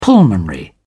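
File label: "pulmonary", British English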